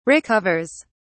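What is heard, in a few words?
"recovers", British English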